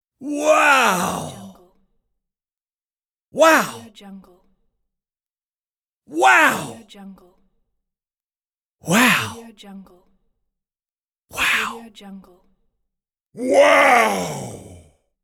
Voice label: male wow expressions